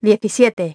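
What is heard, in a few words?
"seventeen", English; iOS 4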